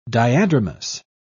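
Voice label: "diadromous", British English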